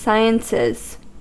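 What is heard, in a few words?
"sciences", US English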